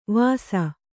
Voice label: "ವಾಸ", Kannada

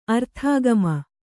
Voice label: "ಅರ್ಥಾಗಮ", Kannada

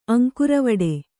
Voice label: "ಅಂಕುರವಡೆ", Kannada

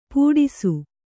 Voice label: "ಪುಟಿಸು", Kannada

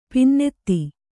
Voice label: "ಪನ್ನತಿ", Kannada

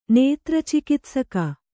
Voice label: "ನೇತ್ರಚಿಕಿತ್ಸಕ", kannada

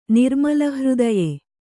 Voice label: "ನಿರ್ಮಲಹೃದಯ", Kannada

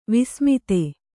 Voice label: "ವಸುಮದ", kannada

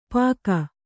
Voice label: "ಪೀಕು", Kannada

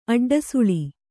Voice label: "ಅಡ್ಡಸುಳಿ", Kannada; aḍḍasuḷi

